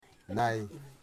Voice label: "yesterday", Wamut